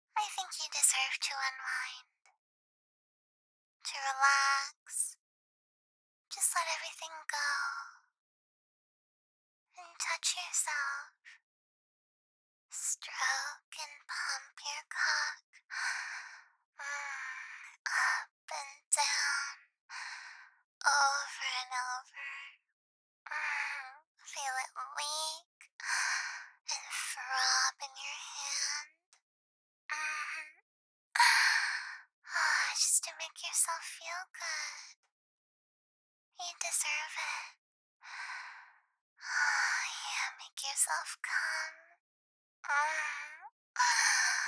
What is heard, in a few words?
VOICEMAIL